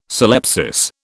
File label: "syllepsis", British